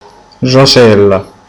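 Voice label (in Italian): "rosa", Italian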